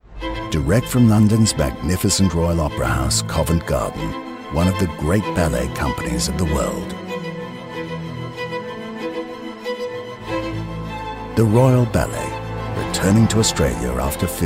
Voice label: TVC; Royal Ballet; voice Realm